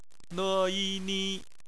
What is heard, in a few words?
"ni", Chinese; BPMF spelling